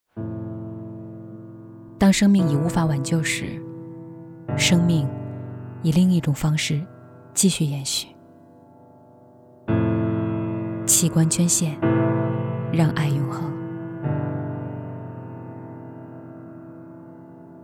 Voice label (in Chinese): 公益走心广告